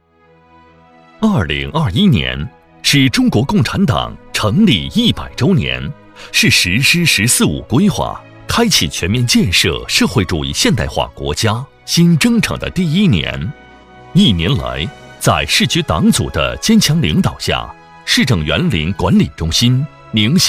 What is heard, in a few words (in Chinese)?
男 宣传政府 年终总结